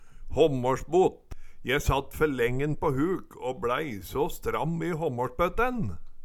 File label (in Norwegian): "håmmårsbot", Numedalsmål